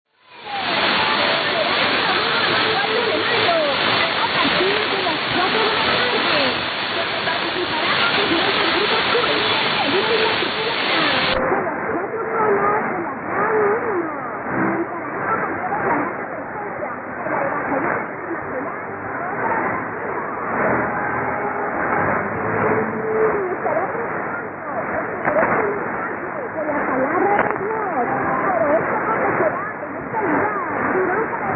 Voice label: 6019.3kHz; Radio Victoria; ペルー